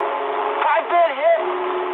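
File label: aircraft damaged mood high, speech English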